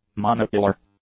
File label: "monocular", English; adjectives